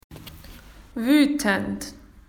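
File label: "angry", Australian English; PictureDictionary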